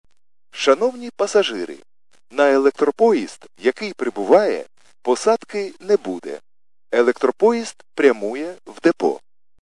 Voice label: khs st male, subway station